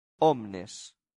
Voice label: "omnes", latin; santillana